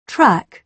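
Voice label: "track", British English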